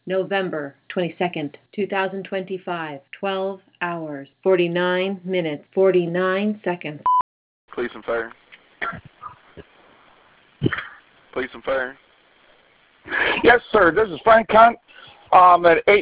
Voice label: Phone; 850 Meridian; 911 Call; Emergency Numbers